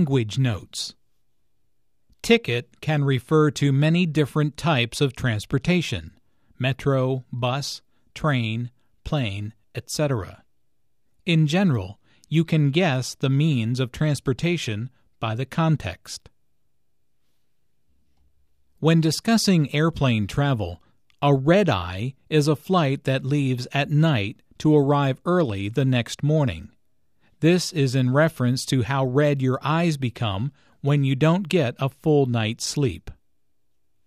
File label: dialogue taking a vacation, learning american english